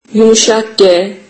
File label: turkish alphabet ge